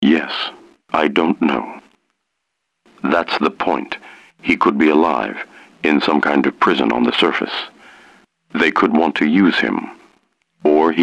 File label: Wiretap; Morpheus